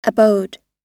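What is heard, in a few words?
"abode", American English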